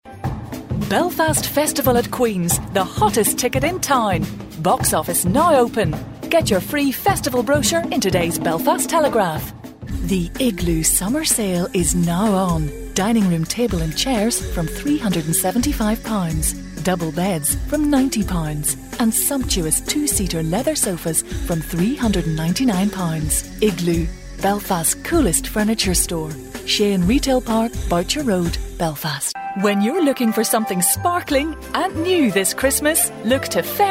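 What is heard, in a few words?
Irish North female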